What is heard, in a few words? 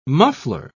"muffler", pronounce